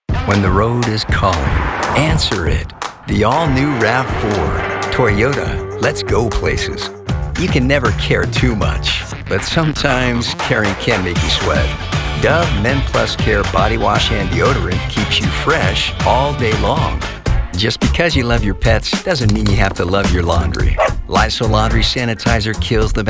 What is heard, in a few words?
Commercials